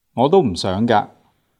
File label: "gaak3", Cantonese